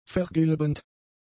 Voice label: "vergilbend", German; adjectives

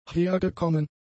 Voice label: "hergekommen", German; adjectives

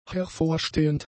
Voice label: "hervorstehend", German; adjectives

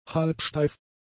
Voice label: "halbsteif", German; adjectives

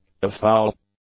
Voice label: "afoul", English; adjectives

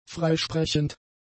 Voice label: "freisprechend", German; adjectives

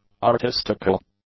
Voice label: "artistical", English; adjectives

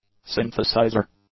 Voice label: "synthesizer", english; nouns

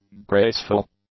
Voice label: "graceful", english; adjectives

